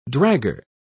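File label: "dragger", British English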